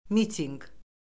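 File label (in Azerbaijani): "mitinq", Azerbaijani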